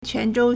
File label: "quan2 zhou1", Chinese